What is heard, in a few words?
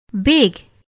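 "big", English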